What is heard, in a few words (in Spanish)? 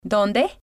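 "donde", Spanish, female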